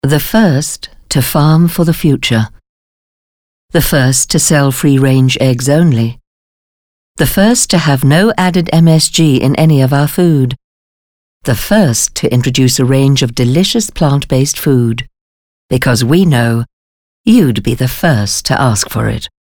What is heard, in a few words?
English Corporate